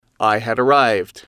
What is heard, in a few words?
I had arrived, English